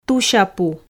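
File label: "тусяпу", Komi, female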